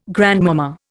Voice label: "grandmama", Indian

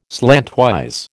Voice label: "slantwise", American

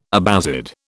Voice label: "Abbasid", British

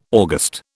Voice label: "August", British

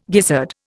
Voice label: "gizzard", Indian

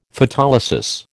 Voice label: "Photolysis", American

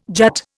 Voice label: "jut", Indian

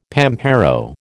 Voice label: "pampero", American

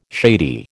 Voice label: "shady", American

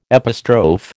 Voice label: "epistrophe", American

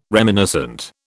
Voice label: "reminiscent", British

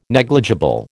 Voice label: "negligible", American

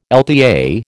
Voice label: "LTA", American

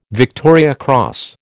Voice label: "Victoria Cross", American

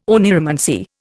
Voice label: "oneiromancy", Indian

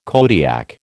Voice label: "Kodiak", American

